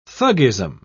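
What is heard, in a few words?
"thuggism", British English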